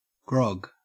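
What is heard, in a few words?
"grog", Australian English; pronunciations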